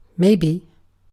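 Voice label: "maybe", British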